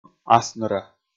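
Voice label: "асныра", Komi, male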